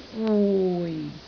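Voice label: "wui4", Cantonese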